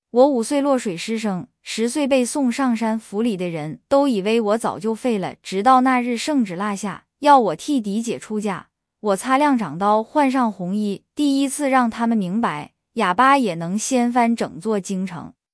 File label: cn ancient serious